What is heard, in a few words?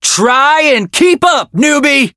Voice chant